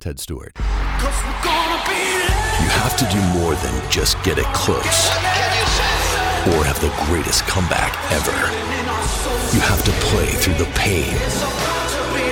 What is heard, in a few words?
promo male sports